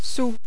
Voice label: "sou", French